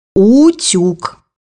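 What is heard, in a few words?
"iron", Russian; bukvar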